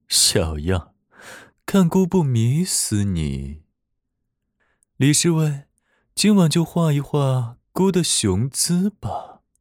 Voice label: 病娇怪癖太子

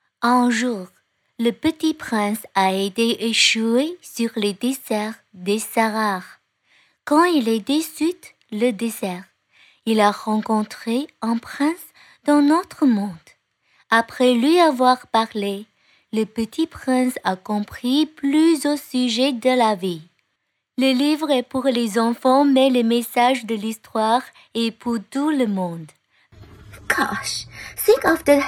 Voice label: 英文法语配音声展

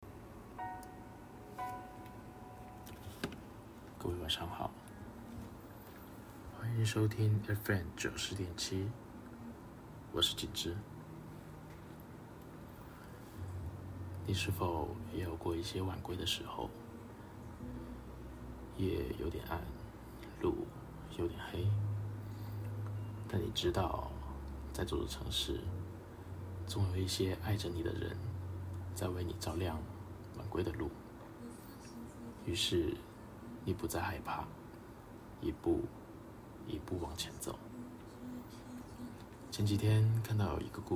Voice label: 睡前故事

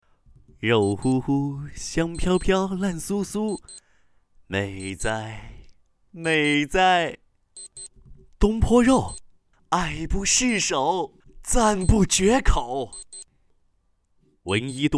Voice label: 多角色配音

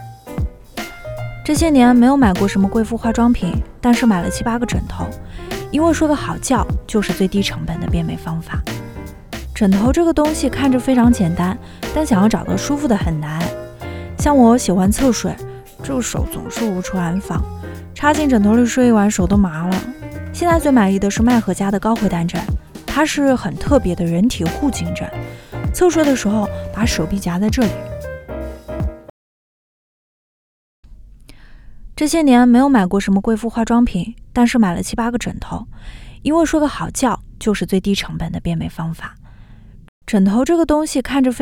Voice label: 信息流纯素人视频博主自然无播音腔; 素人视频博主VOLG自然无播音

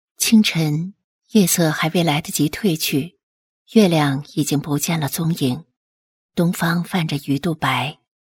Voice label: 温柔

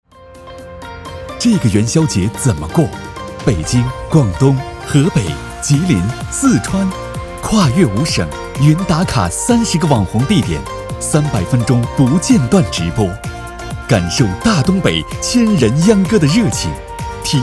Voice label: 活动宣传样音

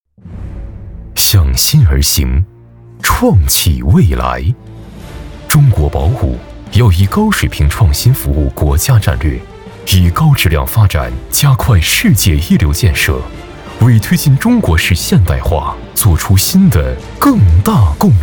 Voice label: 浑厚大气力度

